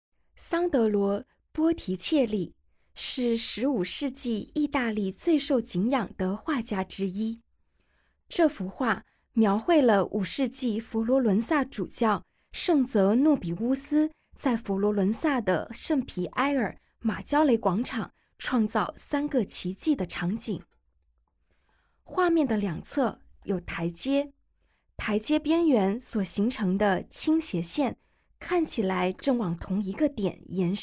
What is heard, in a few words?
Mandarin, audio guide; g9-botticelli-to-van-gogh